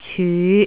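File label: "cyu5", Cantonese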